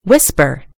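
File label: "whisper", British English; gcompris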